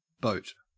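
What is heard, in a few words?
"boat", English, Australian accent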